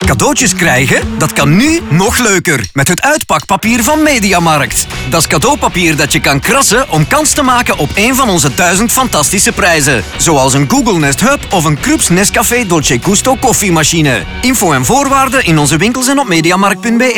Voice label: radio Nl

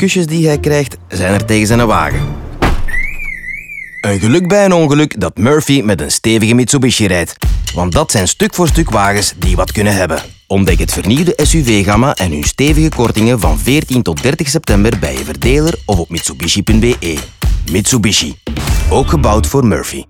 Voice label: radio Nl toaster

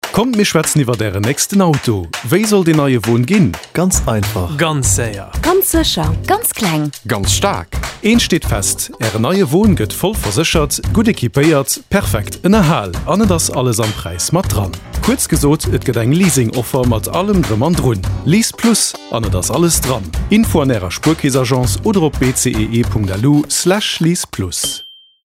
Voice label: Radio Spot; Spuerkeess; LeasePlus

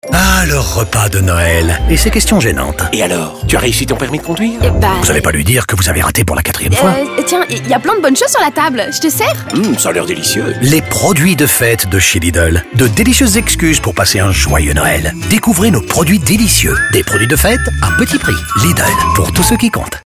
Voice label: radio promo; Delicieux

